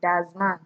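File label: "dazman", Nigerian Pidgin, female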